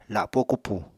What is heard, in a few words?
"lapó kupù", apatani